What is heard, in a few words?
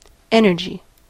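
"energy", American English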